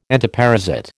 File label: "entoparasite", American